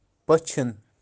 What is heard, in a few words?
"Pachin" pintail, kashmiri birds